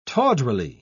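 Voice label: "tawdrily", British English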